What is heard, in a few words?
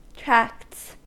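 "tracts", US English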